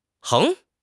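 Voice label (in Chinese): "横", Chinese; HSK; HSK6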